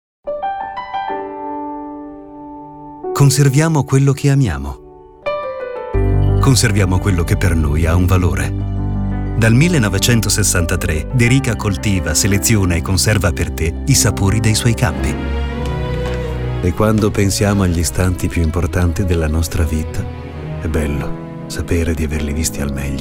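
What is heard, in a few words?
Italian Commercial Warm Reassuring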